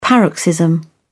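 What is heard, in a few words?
"paroxysm", British English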